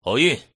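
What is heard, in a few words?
all in, male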